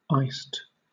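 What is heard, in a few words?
"iced", British English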